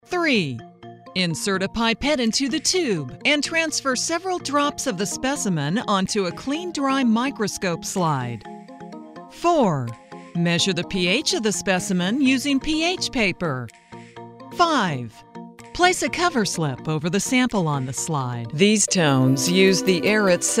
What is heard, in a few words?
Narration